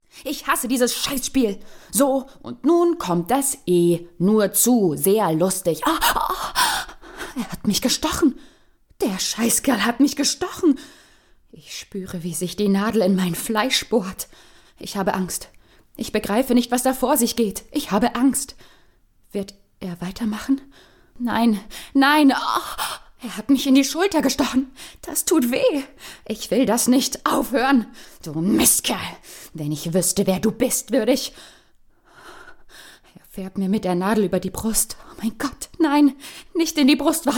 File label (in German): Hoerbuch; Thriller Hörbuch; Angst / Wut / Schmerz